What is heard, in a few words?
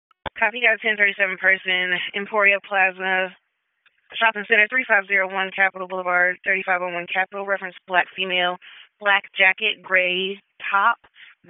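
Police Northeast District Dispatch, Raleigh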